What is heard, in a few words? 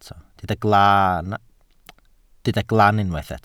"glan", Welsh